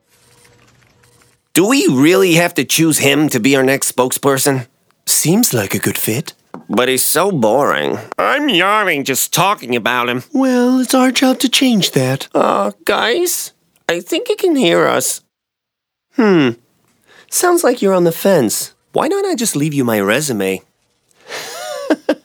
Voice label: ANIMATION